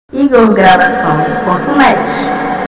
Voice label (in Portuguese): VINHETA; IGOR GRAVACOES